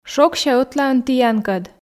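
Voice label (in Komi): "шогся ӧтлаын тіянкӧд", Komi, female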